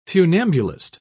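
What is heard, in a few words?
"funambulist", British English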